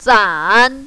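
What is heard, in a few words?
"zan" third tone, mandarin, female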